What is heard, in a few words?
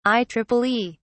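"ieee", English, British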